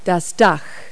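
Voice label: "roof", English word; Multi-Lingual Talking Picture Dictionary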